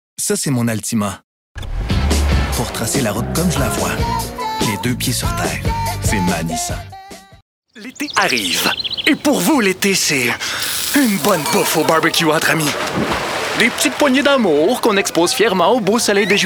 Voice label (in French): Publicité (français)